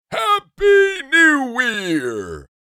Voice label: Happy new year santa claus voice; christmas